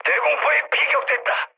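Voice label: Korean damaged aa mood low, speech; War Thunder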